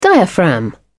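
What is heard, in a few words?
"diaphragm", British English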